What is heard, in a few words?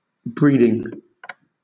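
"breeding", British English; pronunciations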